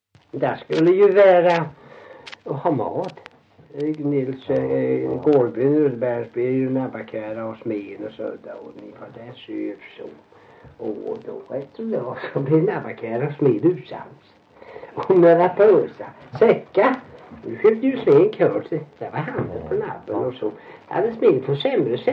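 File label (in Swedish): Värö Halland, Svenska sagor dialekter; Folkminnen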